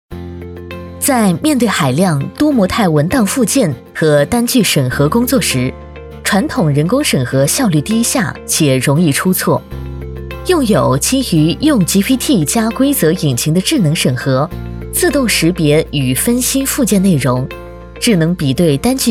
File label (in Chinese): 女 科技; 用友